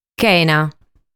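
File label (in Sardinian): "Chena", Sardinian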